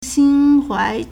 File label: "xin1huai2", Chinese